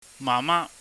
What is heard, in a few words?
"mahma", Cantonese